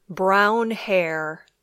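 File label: "brown hair", English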